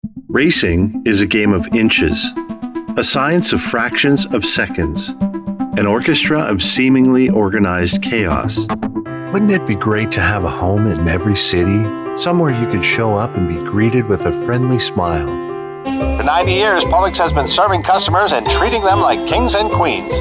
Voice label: english canadian corporate videos

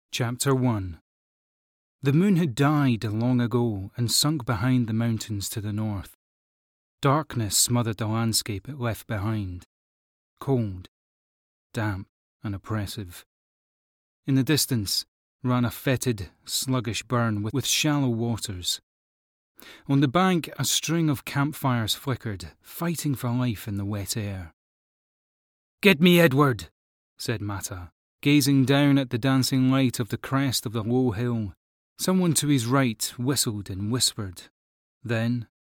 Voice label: english british audiobooks

